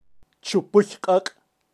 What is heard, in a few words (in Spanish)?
"chupuy qaq", kaqchikel, pronunciacion de animales